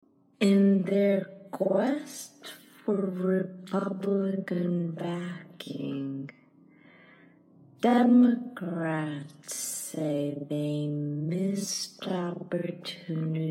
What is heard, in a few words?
Speech slow